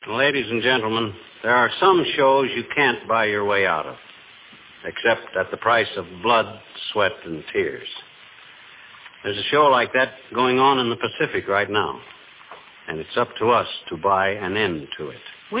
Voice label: war bond radio spot; War-bond appeal